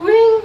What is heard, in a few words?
"hoo"